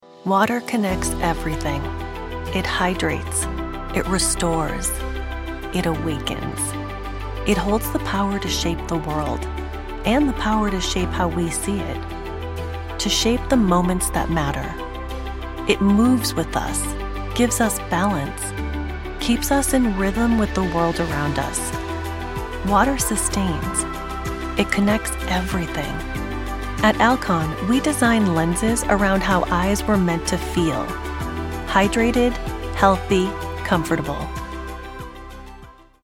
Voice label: Trustworthy, Confident, Articulate, Intelligent